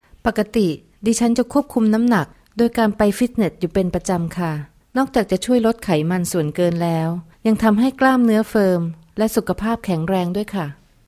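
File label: Thai female narration; voice-over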